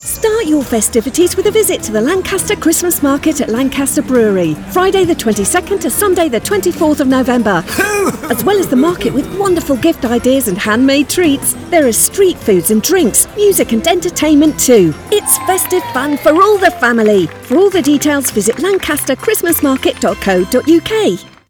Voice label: Radio Commercials, Christmas Promotion, Female British Voiceover; Lancs Xmas Market festive fun